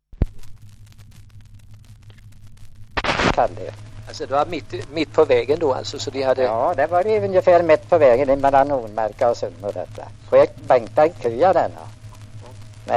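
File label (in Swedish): Sunnemo Värmland, dialekter; svenska sagor; Folkminnen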